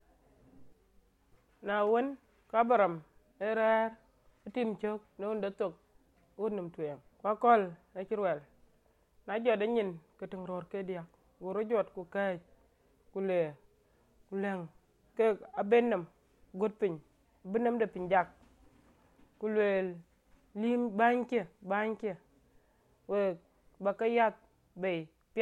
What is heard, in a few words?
Dinka Padong; Abraham and Isaac